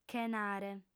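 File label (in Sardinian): "chenare", female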